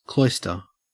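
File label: "cloister", Australian English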